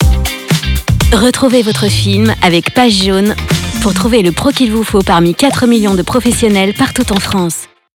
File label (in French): billboard; pages jaunes